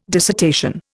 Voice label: "dissertation", Indian